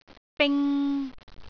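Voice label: "bing3", Cantonese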